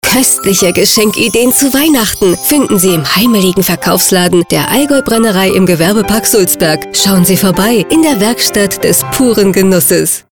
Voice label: Werbung; Allgaeu Brennerei

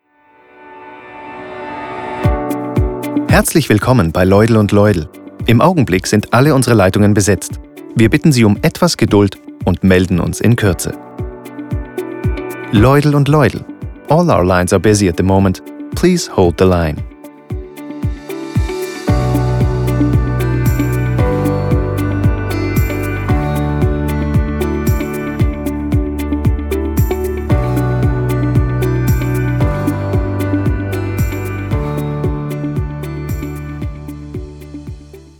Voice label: Telefon

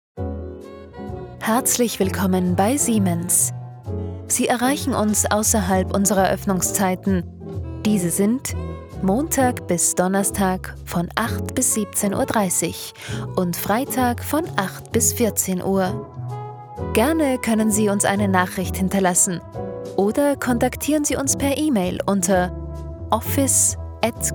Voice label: Anrufbeantworter Musik

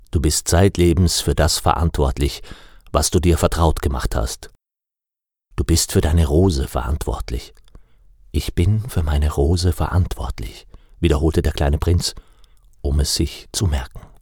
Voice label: Erzählung

